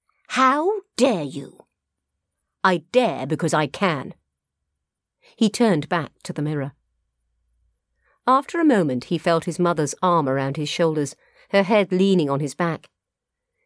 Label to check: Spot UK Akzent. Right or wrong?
Wrong